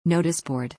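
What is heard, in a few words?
"noticeboard", English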